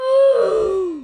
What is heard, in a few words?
slow oof; roblox